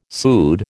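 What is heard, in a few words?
"pseud", American English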